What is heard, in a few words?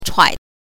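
"chuai", Mandarin Chinese pinyin, neutral tone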